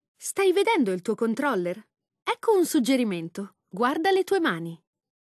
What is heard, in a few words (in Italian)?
lang it it, voiceovers